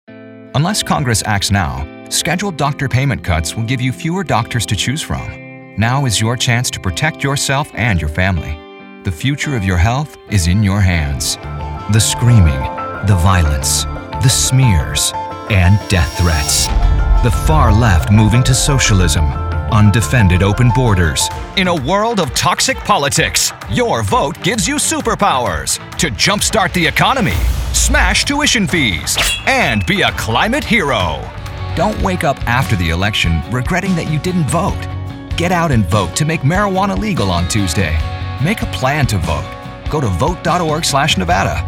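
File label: GOP Democrat Voiceover, Political, Male; Political GOP Voices English MALE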